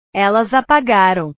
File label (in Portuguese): "elas apagaram", portugues